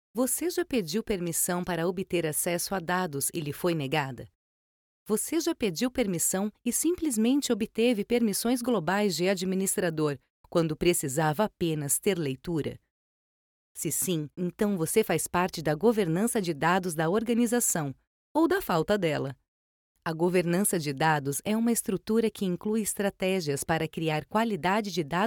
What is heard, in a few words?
portuguese brazilian corporate videos